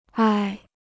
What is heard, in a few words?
"挨", Chinese